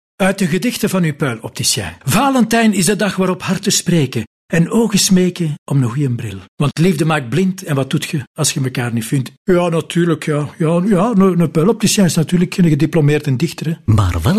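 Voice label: Flemish, male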